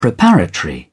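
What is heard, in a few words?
"preparatory", British English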